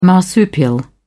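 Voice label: "marsupial", British English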